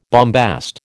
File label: "bombast", American